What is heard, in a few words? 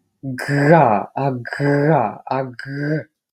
Voiced velar trill